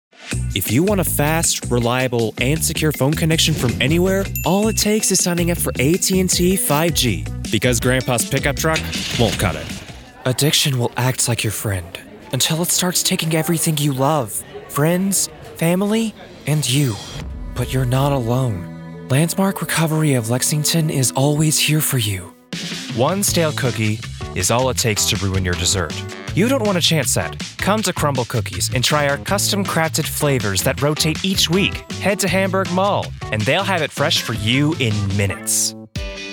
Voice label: Commercial

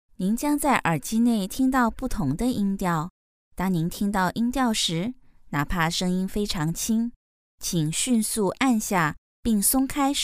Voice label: Mandarin

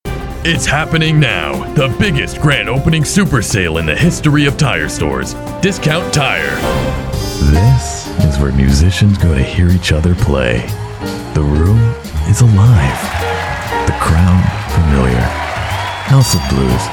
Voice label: Commercial

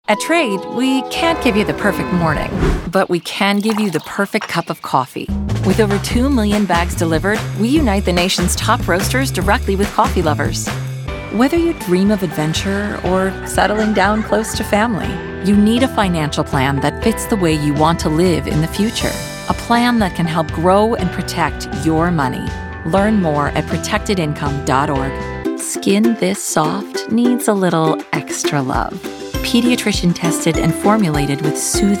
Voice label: Commercial

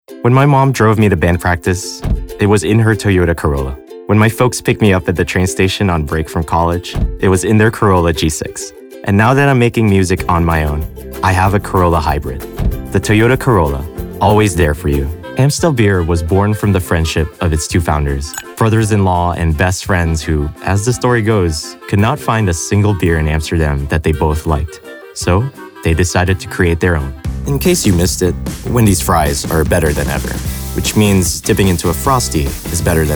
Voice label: Commercial VO

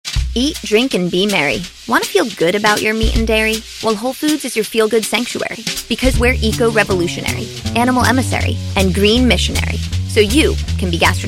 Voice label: Commercial

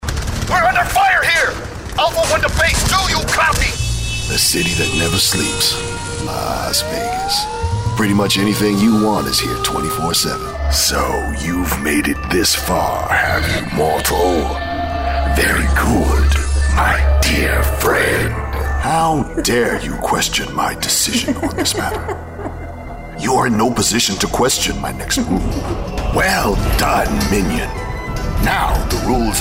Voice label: character

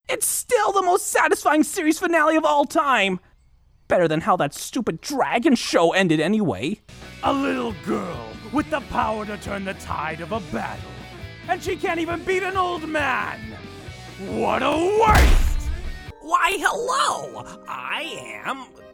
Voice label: Character

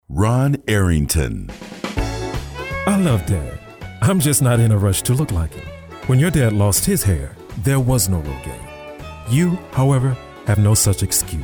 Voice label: voiceover

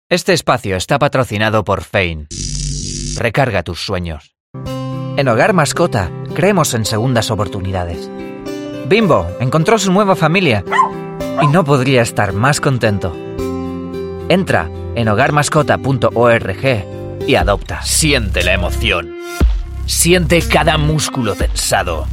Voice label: Spanish Commercial

